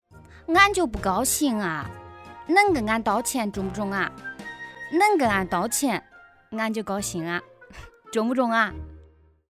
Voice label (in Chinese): 河南方言